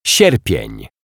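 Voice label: "Sierpien", Polish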